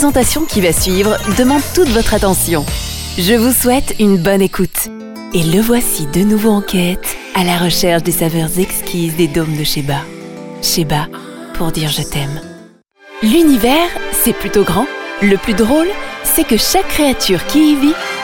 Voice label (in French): Demo VO Voix F